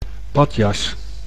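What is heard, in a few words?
"badjas", Dutch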